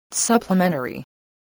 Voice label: "supplementary", English

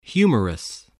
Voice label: "humorous", voice

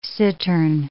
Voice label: "cittern", English

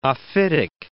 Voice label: "ophitic", English

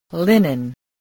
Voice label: "linen", spelling

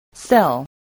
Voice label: "sell", english spelling

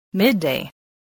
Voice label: "midday", voice, spelling

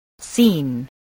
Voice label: "scene", english spelling

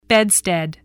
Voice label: "bedstead", English

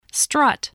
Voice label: "strut", spelling

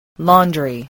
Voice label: "laundry", English

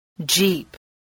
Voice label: "jeep", voice

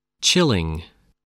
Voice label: "chilling", English, voice

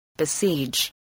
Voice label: "besiege", English

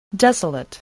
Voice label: "desolate", English

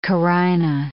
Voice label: "carina", English

